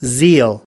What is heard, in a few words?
"zeal", us